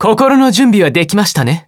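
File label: skill, Japanese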